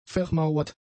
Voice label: "vermauert", German; adjectives